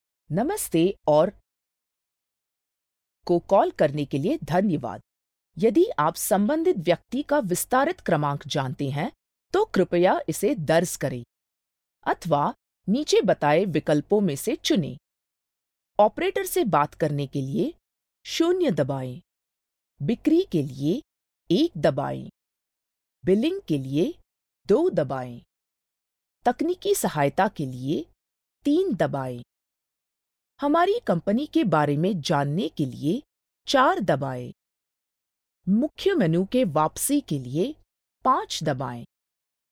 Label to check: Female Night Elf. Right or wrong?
Wrong